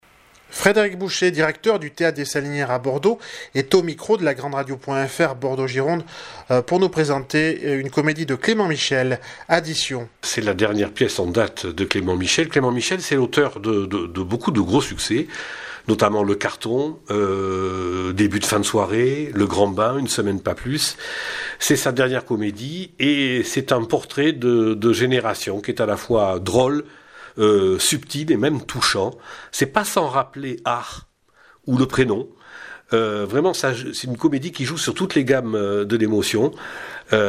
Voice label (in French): INTERVIEW; Addition une comedie Theatre des salinieres